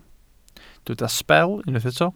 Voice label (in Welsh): "sbel" Welsh